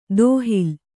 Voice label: "ದೋಹಿಲ್", Kannada

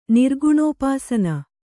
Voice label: "ನಿರ್ಗುಣೋಪಾಸನೆ", Kannada

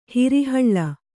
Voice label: "ಹಿರಿಹಳ್ಳ", Kannada; hiri haḷḷa; noun; = ಹಿರಿಹಬ್ಬೆ.

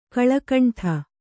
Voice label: "ಕಲುಗುಂಡು", Kannada

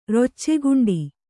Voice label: "ರೊಚ್ಚೆಗುಂಡಿ", Kannada

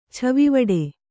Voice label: "ಛವಿವಡೆ", Kannada

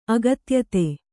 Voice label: "ಅಗತ್ಯತೆ", Kannada

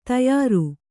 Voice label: "ತಯಾರು", Kannada

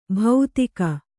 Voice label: "ಭೌತಿಕ", kannada

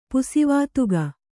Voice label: "ಪುಸಿವಾತುಗ", kannada

